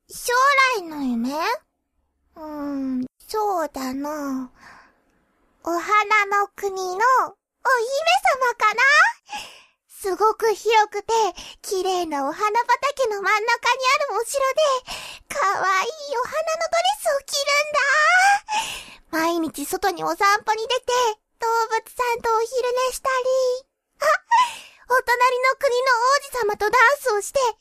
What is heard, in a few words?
little girl; 幼女; 夢見るロリ